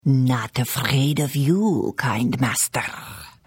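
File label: Hello, Khajiit female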